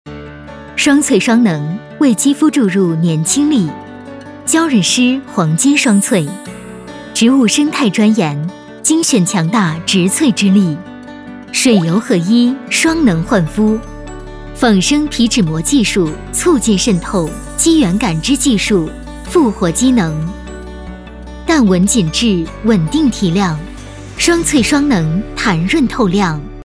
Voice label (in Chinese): 女 广告 轻松 护肤; 娇韵诗护肤